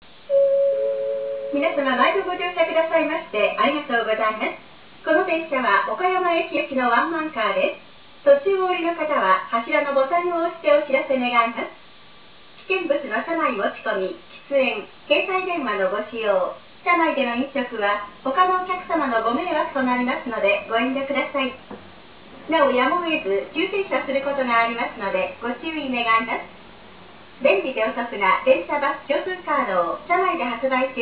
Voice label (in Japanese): densya announce